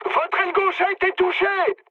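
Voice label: left wing, mood high, French; War Thunder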